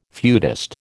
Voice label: "feudist", American